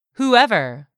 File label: "WHOEVER", English; 3000 words